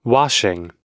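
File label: "washing", American English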